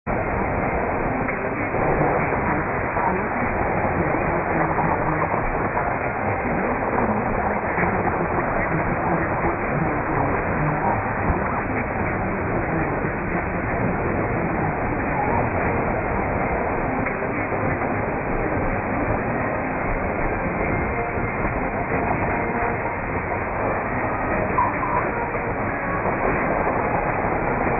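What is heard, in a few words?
954 likely Czech Radio Czech Republic; Czesky Rozhlas CZ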